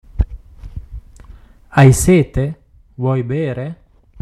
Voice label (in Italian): italiano